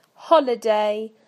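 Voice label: "holiday", British English; PictureDictionary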